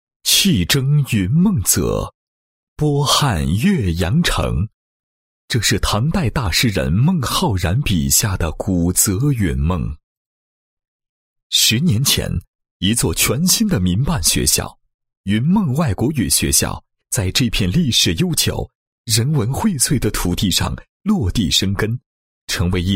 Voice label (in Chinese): 男 学校 10周年庆典宣传片, 配音案例; 云梦外校